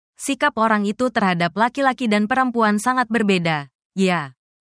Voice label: indonesian phrases